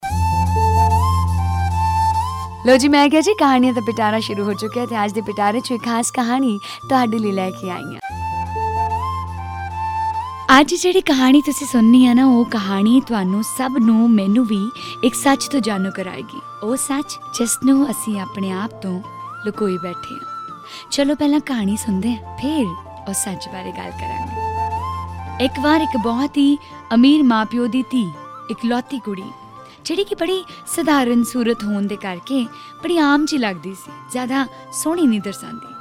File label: Narration